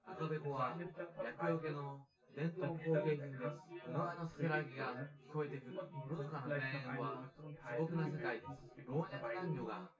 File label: male synth conv 250 ms 5 cm